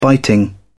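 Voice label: "biting", British English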